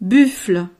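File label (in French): "buffle", French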